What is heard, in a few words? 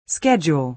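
"schedule", American English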